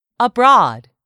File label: "abroad", English; 3000 words